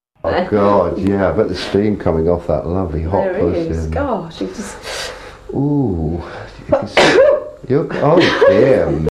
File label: 18plus, female